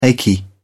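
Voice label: "achy", British English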